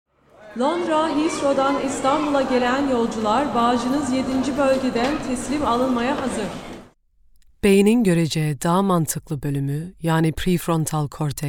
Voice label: Turkish